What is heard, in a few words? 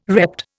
"repped", Indian